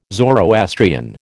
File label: "Zoroastrian", American